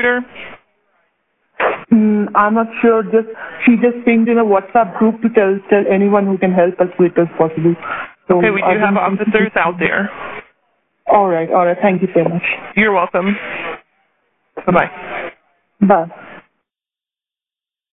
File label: 911 call; 9201 University City Bv